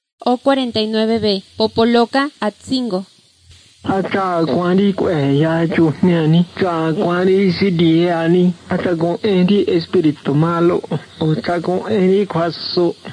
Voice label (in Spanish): spanish mexico